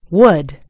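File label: "wood", American English; gcompris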